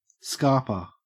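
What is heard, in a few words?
"scarper", Australian English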